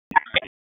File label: Police Northeast District Tac; Raleigh; Tac 1; wake